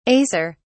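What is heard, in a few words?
"acer", British English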